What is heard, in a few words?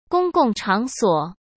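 "公共场所", Mandarin Chinese; chinese-flashcards; hsk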